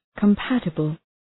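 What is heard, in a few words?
"compatible", English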